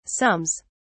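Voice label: "sums", British English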